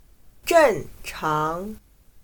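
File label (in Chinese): "正常", Chinese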